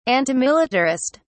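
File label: "antimilitarist", British English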